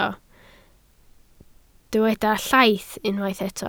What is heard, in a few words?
"llaith", Welsh